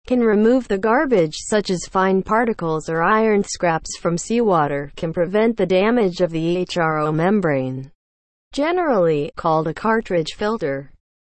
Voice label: english, seawater desalination; watercompany